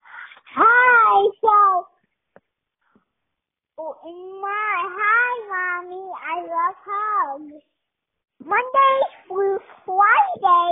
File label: Mommys Voicemail